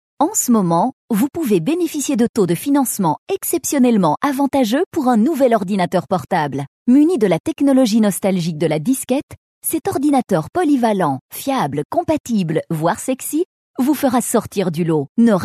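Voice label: French, female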